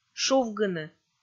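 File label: "шувгыны", Komi, female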